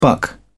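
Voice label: "buck", English, British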